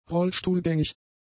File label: "rollstuhlgängig", German; adjectives